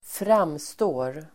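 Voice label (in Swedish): "framstår", Swedish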